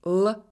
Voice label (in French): son l, russe